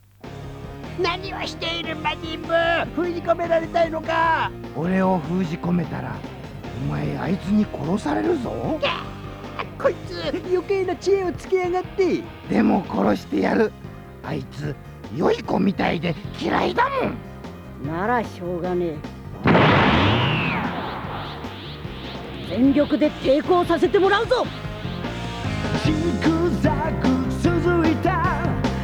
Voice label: drama tape sample